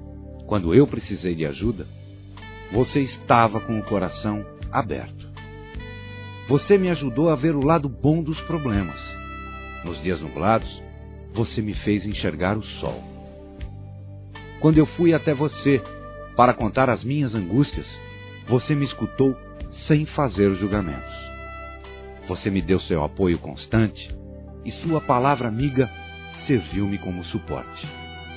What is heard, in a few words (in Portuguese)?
DECLARAÇÃO DE AMIZADE, Amizade Masc; Telemensagens